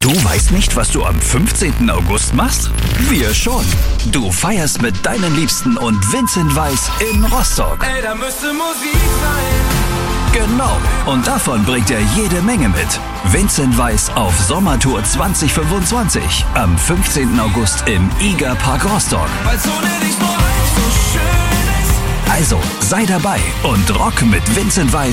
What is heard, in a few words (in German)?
konzertpromo; sommertour2025; rostock; iga park